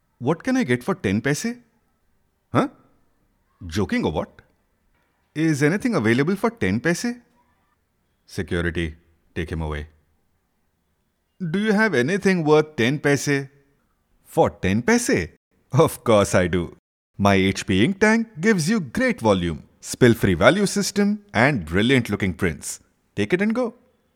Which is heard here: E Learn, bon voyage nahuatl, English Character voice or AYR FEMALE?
English Character voice